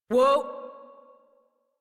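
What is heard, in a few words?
horse stop adult, English; Warframe; Duviri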